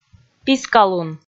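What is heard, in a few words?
"пискалун", Komi, female; audio dict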